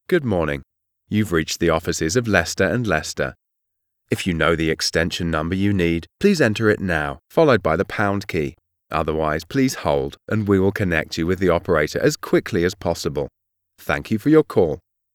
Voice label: phone; Lester and Lester